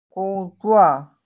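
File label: "gong1zuo4", Mandarin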